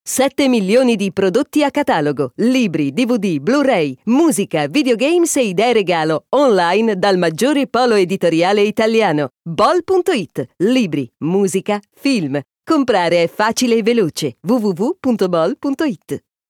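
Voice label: ITA advertise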